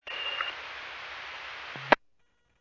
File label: Medevac, scanner; NativeAirAmb